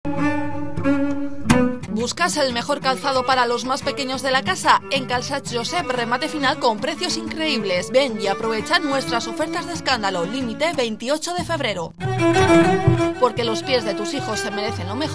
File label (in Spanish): anuncio; sabateriajosep